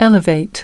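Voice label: "elevate" US English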